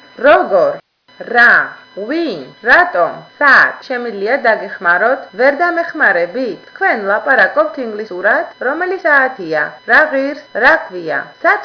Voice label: georgian; phrases